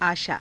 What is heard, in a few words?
"aashaa", hindi